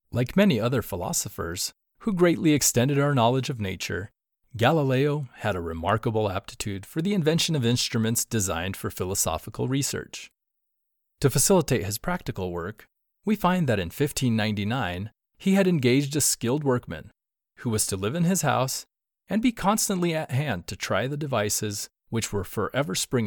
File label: Narration Sample; Galileo